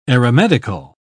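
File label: "aeromedical", English, uk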